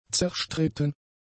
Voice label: "zerstritten", German; adjectives